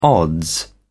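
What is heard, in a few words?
"odds", English, British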